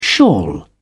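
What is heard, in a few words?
"shawl", British English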